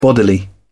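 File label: "bodily", British English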